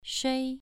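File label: "shui" Mandarin Chinese, second tone, pronunciation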